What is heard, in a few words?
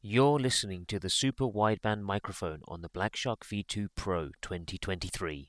Razer BlackShark V2 Pro Microphone Sample